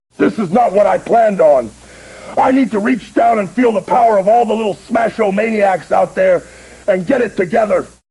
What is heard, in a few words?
smasher upset